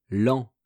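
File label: "lent", French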